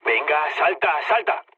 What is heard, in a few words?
bailout, mood low, speech; War Thunder